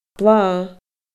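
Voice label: "blath", Scottish Gaelic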